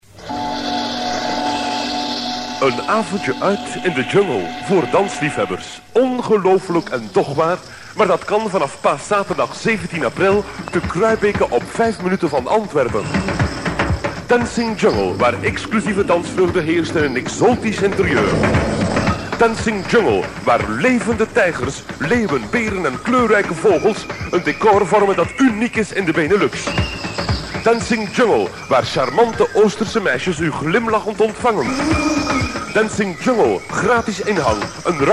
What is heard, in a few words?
commercial